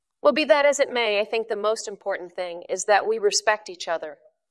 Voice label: VoiceActing es F